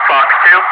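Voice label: "FOX 2", English, Wingman; DCS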